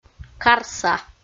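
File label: "карса", Komi, female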